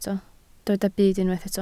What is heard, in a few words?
"byd", Welsh